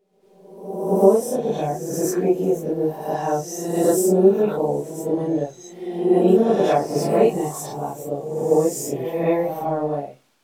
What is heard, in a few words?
message reverb; example in which reverberation precedes speech; Music 175: Cognitive Psychology and Music; An auditory analog to spatial inversion is temporal